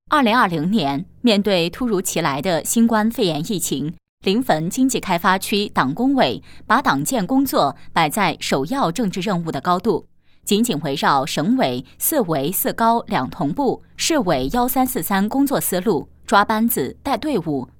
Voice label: 专题新闻 党建工作

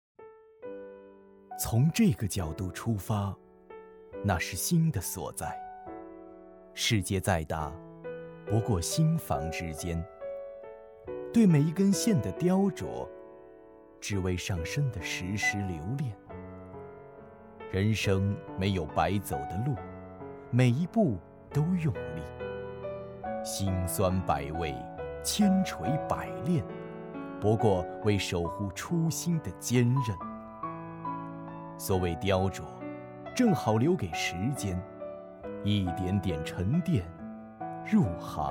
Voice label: 温馨

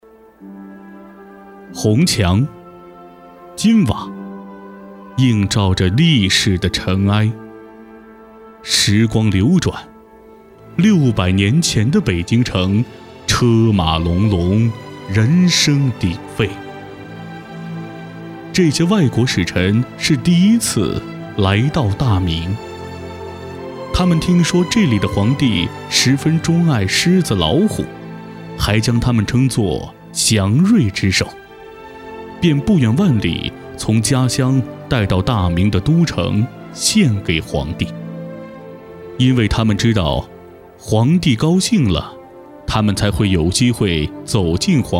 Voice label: 纪录片; 紫荆城选段

